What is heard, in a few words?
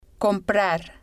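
"comprar", spanish, female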